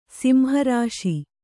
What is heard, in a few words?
"ಸಂಹರ್ಷ", Kannada